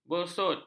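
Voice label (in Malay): "bersut", Malay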